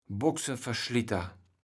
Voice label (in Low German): "Boxenverschlitter", kaevels platt